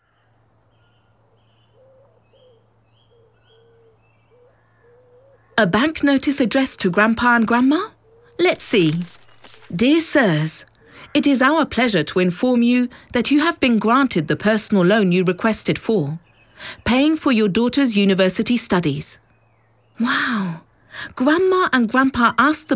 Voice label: audioguia english; vrural